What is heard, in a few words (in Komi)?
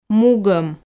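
"мугом", Komi, female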